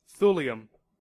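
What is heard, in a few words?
"thulium", US English; pronunciations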